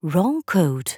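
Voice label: Wrong code, English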